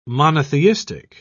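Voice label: "monotheistic", British English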